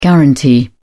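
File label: "guaranty", British English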